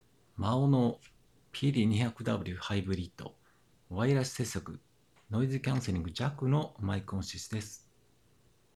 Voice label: maono pd200w hybrid Wireless microphone sound quality